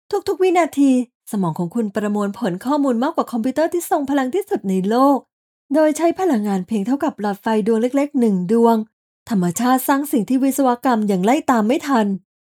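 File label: Narration Science, Female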